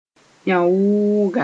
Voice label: "yão-wʋʋga", Mooré